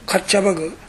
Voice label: "kachabagu", 南山形

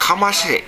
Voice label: "gaeu", minami yamagata

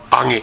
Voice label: "age", minami yamagata

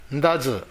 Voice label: "ndazu", minami yamagata

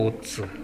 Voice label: "ottu", minami yamagata

